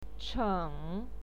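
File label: "cheng", Mandarin Chinese, third tone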